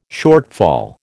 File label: "shortfall", American